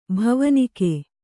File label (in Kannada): "ಭವನಿಕೆ", Kannada; bhavanike; noun; a royal house.